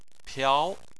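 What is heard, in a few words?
"piao" second tone, Chinese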